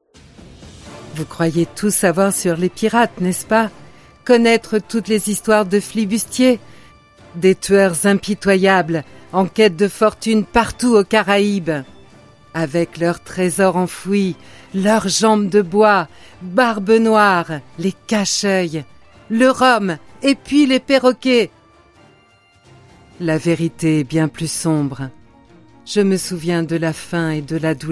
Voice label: french video games

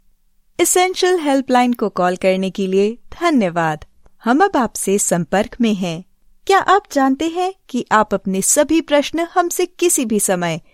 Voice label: hindi ivr

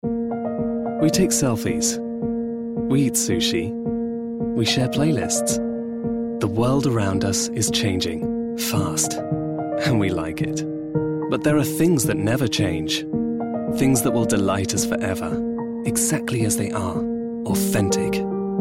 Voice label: english british narration

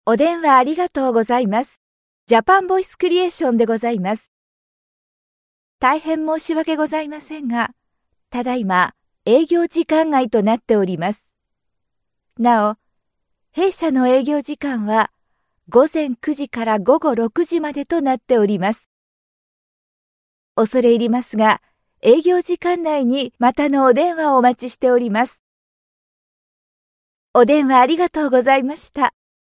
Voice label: japanese ivr

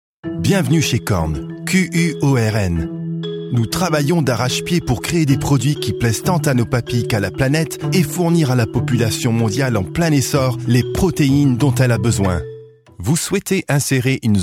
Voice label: english british commercial

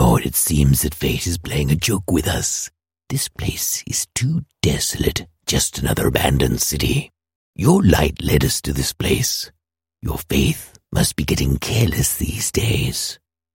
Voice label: english australian animation

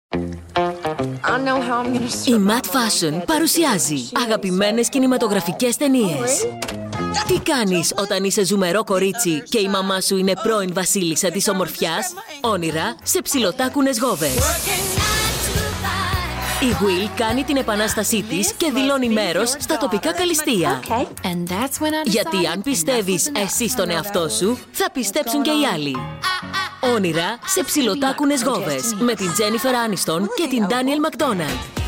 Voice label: greek movie trailers

